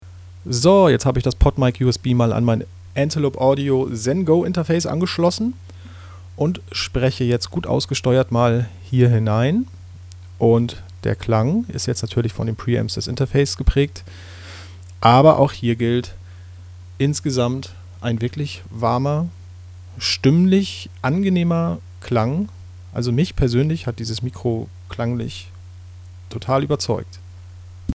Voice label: rode podmic hd usb xlr